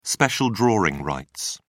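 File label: "special drawing rights", British English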